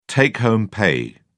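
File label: "take-home pay", British English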